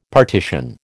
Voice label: "partition", American